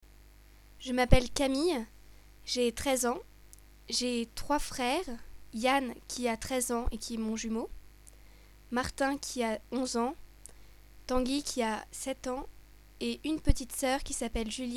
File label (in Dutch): luisteroefening; audio lingua